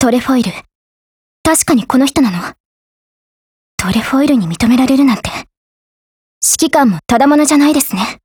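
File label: GAIN, Japanese; scout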